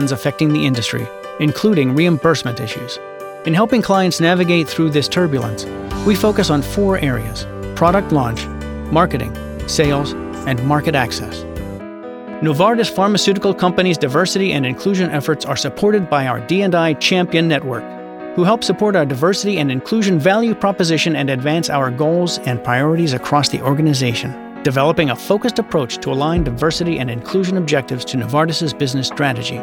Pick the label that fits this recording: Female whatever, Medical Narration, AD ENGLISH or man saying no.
Medical Narration